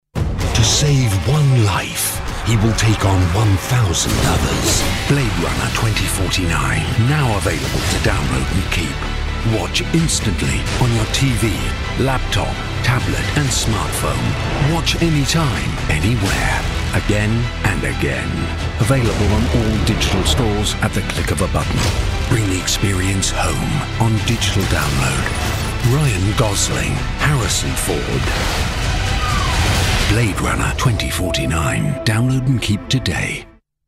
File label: english british movie trailers